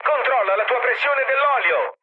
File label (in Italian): damaged oil, mood med, speech it; War Thunder